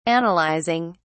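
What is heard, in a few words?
"analyzing", British English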